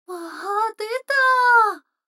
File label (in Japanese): 女の子 台詞, ASMR